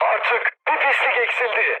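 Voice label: player killed enemy, mood high, Turkish; War Thunder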